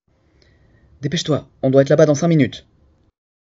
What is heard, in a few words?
Fast Talking French